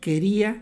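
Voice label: "queria", Mexican Spanish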